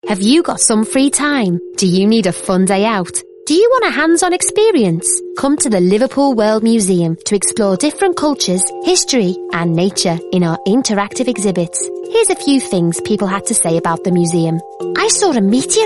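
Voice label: English F Northern Accent, female, accents of England; voice over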